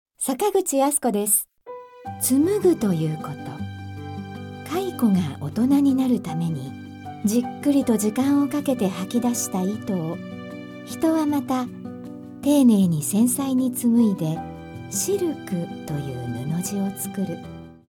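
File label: female documentary, English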